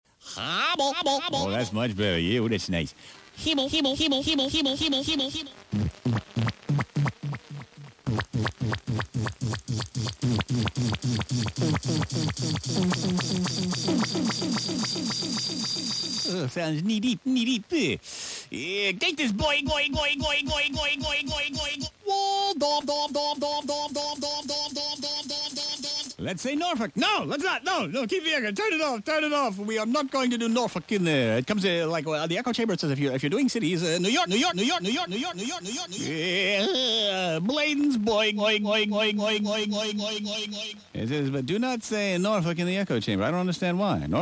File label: Playing with Vocal Effects; The Greaseman